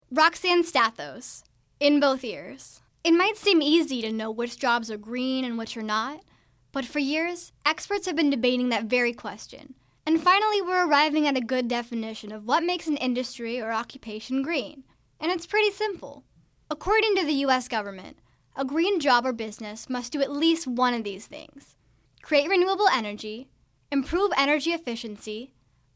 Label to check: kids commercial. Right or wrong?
Right